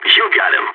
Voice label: nice shot, balkan; vo